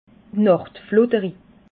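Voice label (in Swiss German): "nachtflotteri", Alsatian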